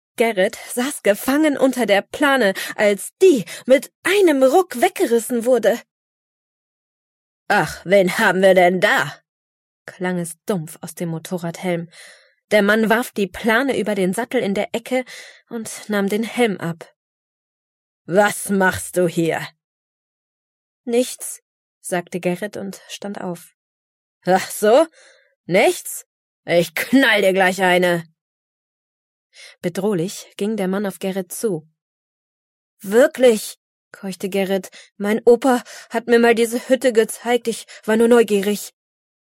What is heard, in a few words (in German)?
Hörbuch Kinder ab 9 J.